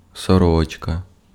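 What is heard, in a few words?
Ukrainian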